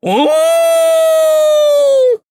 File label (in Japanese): touch, ja; Cookie Run